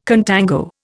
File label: "contango", Indian